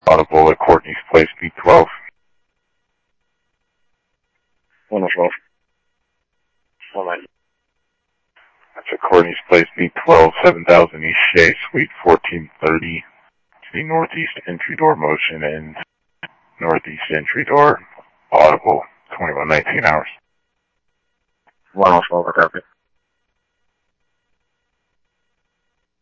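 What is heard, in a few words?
police department, scanner audio; SCTSDLE PD - PD2; ScottsdalePolice; ThompsonPeakHigh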